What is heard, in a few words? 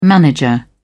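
"manager", British English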